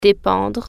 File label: depend, french